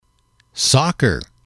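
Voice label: "soccer", English, male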